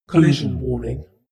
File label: female status report